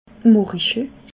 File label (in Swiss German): "morichel", Alsace